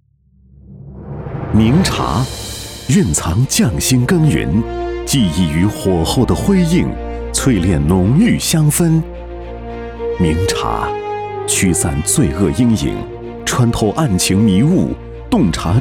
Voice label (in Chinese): 男 形象片; 走心企业形象片样音; 名山检察院形象片